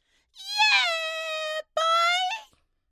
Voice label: yeah boi acapella

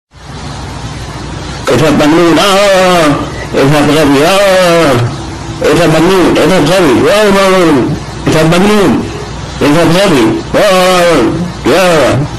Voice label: go crazy meme arabic version

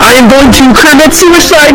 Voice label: sewer slide earrape; kermit

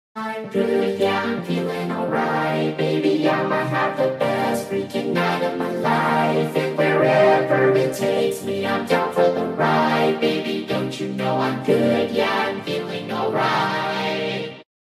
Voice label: im good but vocoded

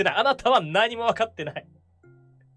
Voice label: broken japanese wakatenai

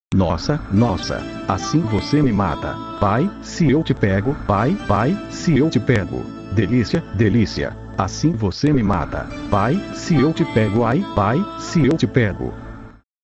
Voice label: loquendo

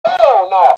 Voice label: hell nah dog loud

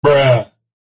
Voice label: bruh sound effect

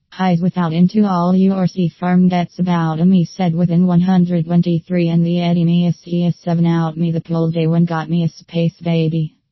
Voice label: baby speech

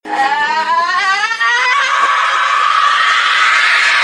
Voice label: angry german kid reversed

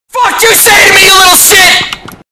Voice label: rages; ninja; at kid

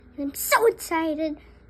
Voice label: excited

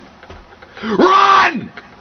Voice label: yelling at cats

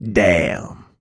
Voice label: vocal damn

